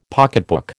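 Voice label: "pocketbook", American English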